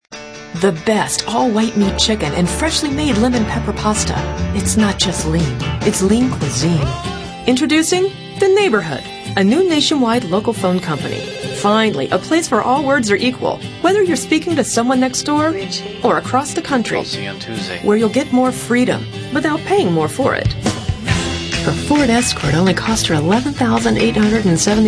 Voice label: natural character, female

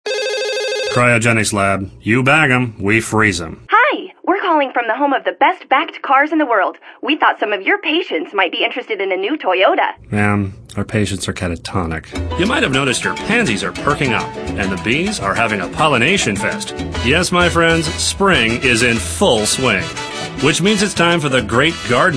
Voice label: male, natural character

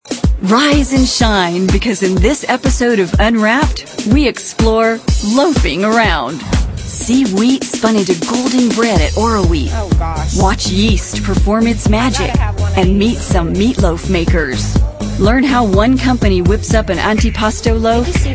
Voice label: female narration

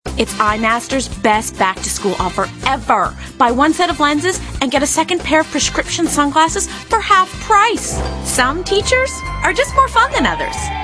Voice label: female, teens mid20s

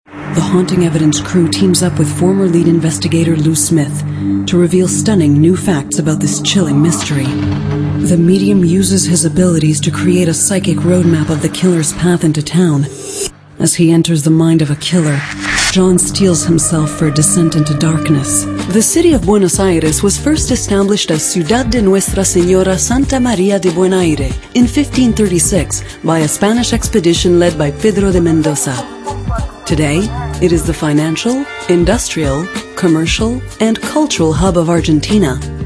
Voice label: female narration

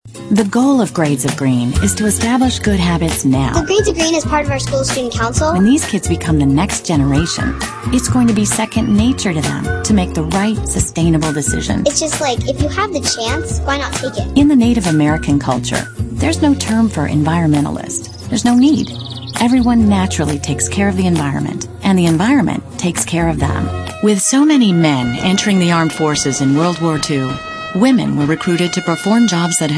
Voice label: female narration

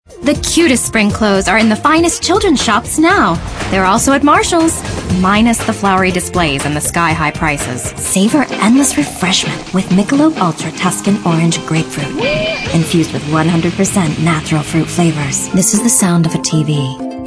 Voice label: female, late20s late30s clear

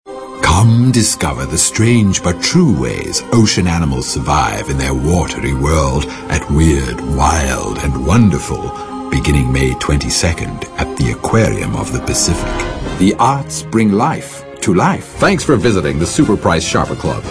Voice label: male, sophisticated

